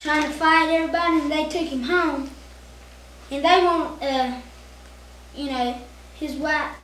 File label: Conversation with 13 year old male West Virginia; american english dialect